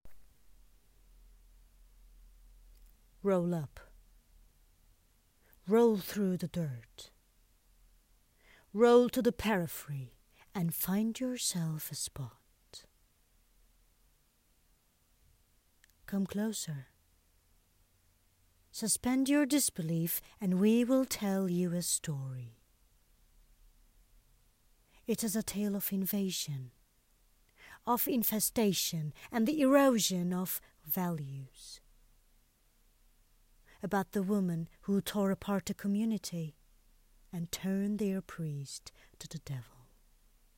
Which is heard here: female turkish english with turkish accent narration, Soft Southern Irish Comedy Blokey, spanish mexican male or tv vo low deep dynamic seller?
female turkish english with turkish accent narration